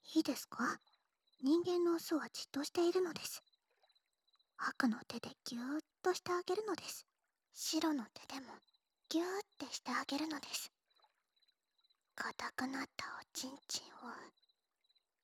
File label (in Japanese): 双狼の温泉で耳舐め囁き手こき, ASMR